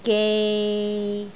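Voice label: "gei6", Cantonese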